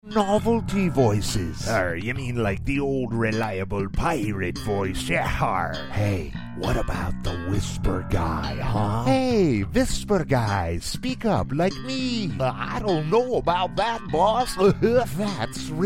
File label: Characters Male